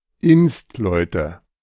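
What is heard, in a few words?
"Instleute", German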